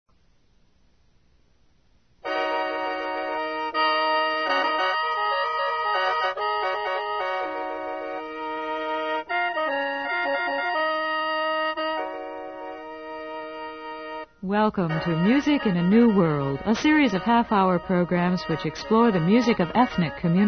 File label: Central Asian